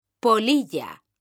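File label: "polilla", Spanish, female; mekanta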